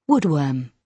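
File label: "woodworm", British English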